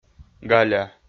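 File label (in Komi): "галя", Komi-Zyrian, male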